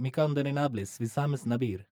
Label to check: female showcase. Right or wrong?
Wrong